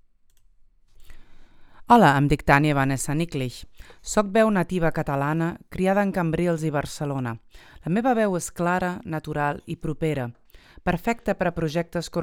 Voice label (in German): vorstellung katalanisch